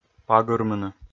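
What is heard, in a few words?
"пагырмыны", Komi, male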